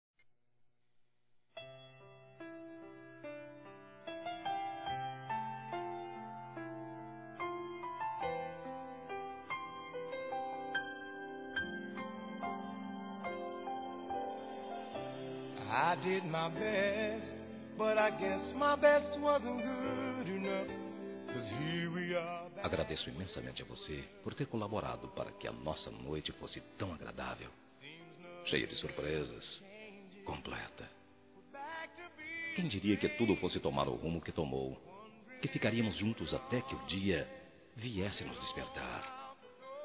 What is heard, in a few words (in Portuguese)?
A PRIMEIRA VEZ-M, Telemensagens Sensuais masc